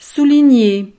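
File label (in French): "souligner", French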